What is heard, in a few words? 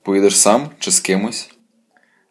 dialogue, Ukrainian